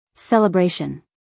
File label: "celebration", us female